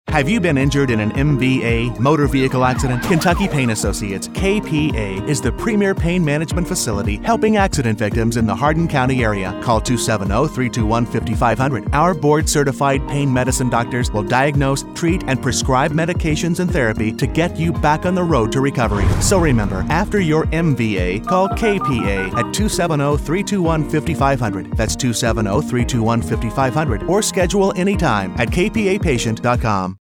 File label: male medical voiceovers; Kentucky Pain Associates